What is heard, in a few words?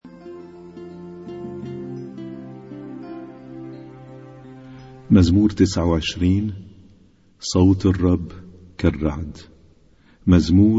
Arabic Psalm Zaboor